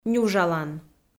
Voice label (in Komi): "нюжалан", Komi